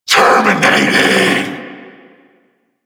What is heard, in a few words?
Vocal Attack